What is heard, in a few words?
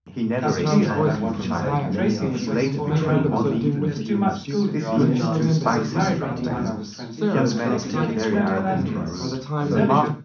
male mix; SiSEC11; underdetermined; 380ms 50cm